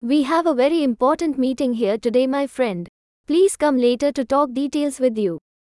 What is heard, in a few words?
India, english accents; english accents challenge